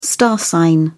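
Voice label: "star sign", British English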